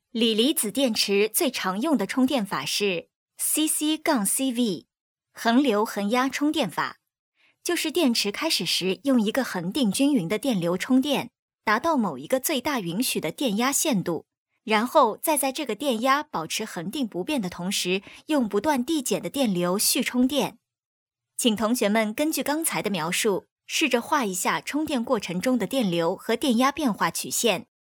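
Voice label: Mandarin Chinese, female, voice over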